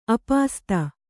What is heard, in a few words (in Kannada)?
"ಆಪಸಾತು", Kannada